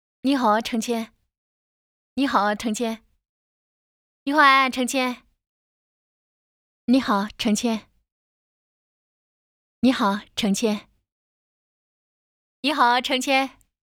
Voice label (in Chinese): 安徽方言